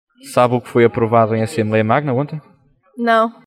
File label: Vox pop